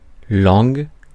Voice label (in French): "langue", francais; Mots francais phonemes